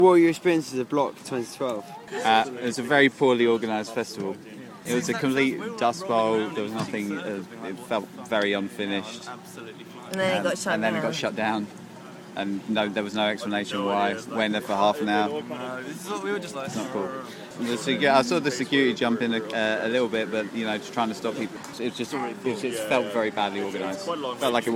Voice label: vox pops